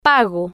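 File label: "pago" Spanish, female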